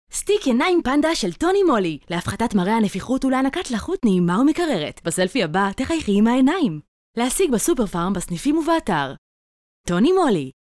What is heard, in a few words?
hebrew commercial